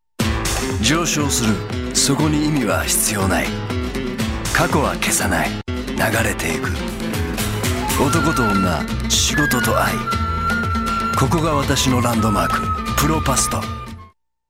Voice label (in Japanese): ナレーションサンプル; プロパスト企業